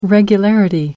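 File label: "regularity", British English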